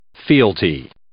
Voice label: "fealty", English

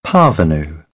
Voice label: "parvenu", British English

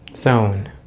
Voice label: "zone", English; Consonants in American English; easypronunciation

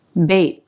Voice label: "bait", English

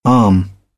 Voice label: "arm", British; AmericanBritish

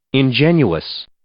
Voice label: "ingenuous", English

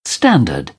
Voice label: "standard", British English

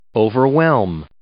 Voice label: "overwhelm", English; verb

